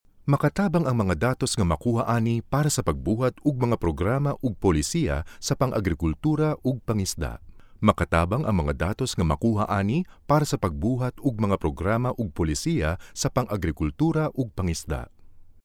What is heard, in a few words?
Cebuano Voice Sample Male; Rizal